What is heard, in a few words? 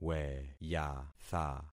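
"weyatha", concatenated syllables; Affixing experiment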